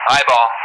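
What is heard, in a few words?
"Eyeball", English; Callsign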